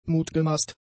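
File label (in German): "mutgemaßt", German; adjectives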